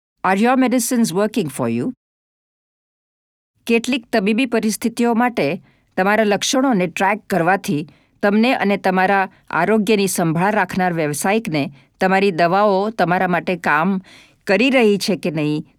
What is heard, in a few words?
Symptom tracker Gujarati